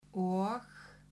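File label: "og", Icelandic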